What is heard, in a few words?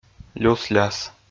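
"люс-ляс" Komi, male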